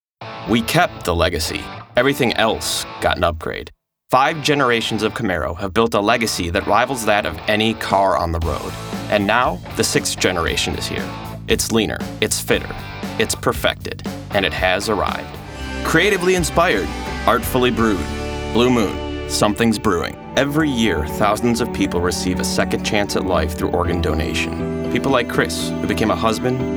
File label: Commercial VO